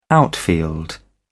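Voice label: "outfield", British English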